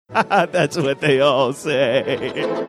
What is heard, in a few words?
laughing saying thats what they all say